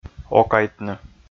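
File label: "окайтны", Komi, male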